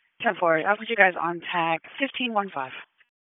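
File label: EMS Dispatch; wake p25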